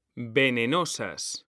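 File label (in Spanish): "venenosas", Spanish; mekanta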